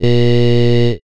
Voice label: "E", male, speech